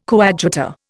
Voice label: "coadjutor", Indian